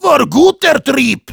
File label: heavy thanks for the teleporter, de